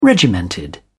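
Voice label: "regimented", British English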